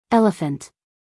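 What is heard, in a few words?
"elephant", American English, female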